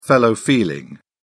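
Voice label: "fellow feeling", British English, dictionary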